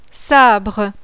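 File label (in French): "SABRE", French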